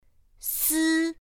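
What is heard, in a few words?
"s", Mandarin Chinese pinyin; pinyin2; pinyinji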